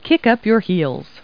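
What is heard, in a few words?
woman; Talking Clip Words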